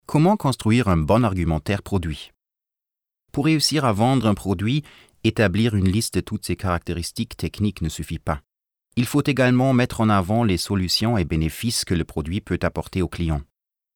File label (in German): franzoesisch